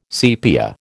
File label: "sepia", American